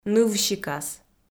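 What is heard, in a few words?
"нывсикас", Komi, female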